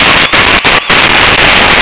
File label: nice jump, Cheer; Nintendo; wr64